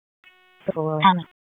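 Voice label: Police Northeast District Disp, radio; Raleigh; p25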